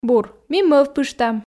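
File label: Komi, female; бур ми мӧвпыштам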